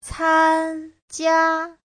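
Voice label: "cānjiā", Mandarin Chinese; 参加; (động từ) tham gia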